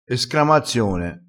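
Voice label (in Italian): "escramazione", vocabolario dialettale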